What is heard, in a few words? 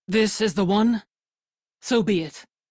Crew Hired, Female; Dialog; en; Warframe; Railjack Crew; Steel Meridian